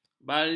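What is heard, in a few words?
"barli", Malay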